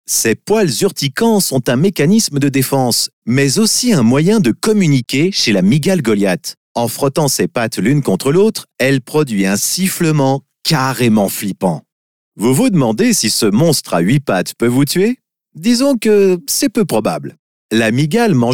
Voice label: french podcasts